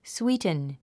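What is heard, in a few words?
"sweeten", English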